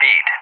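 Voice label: "feet", English; JTAC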